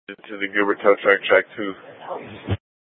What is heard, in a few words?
Public Transit System Light Rail Ops, scanner